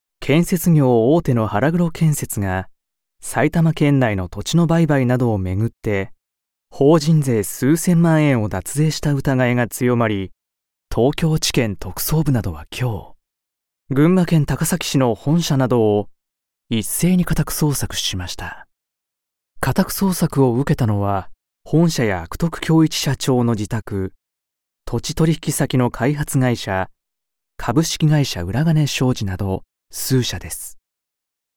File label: news TV sample